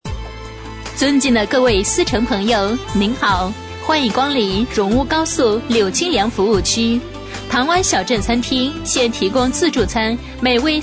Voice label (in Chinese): 女声【舒缓广告】; 稿荣乌高速柳青梁服务区